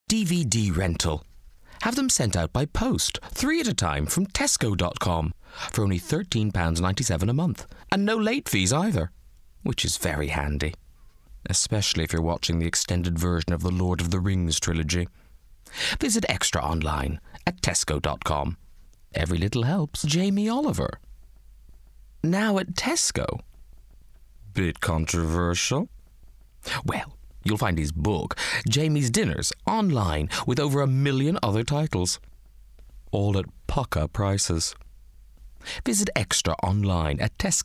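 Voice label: commercials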